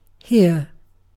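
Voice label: "here", British English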